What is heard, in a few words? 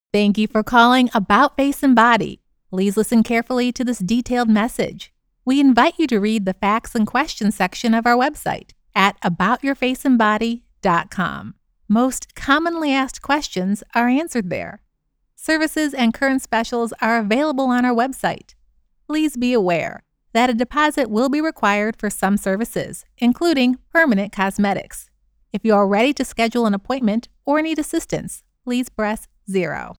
Phone Friendly Professional Warm